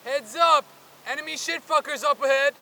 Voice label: spot; bf3